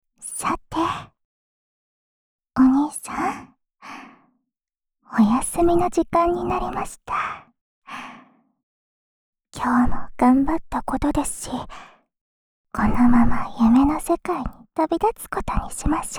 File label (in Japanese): 安眠用添い寝ループ（左耳, ASMR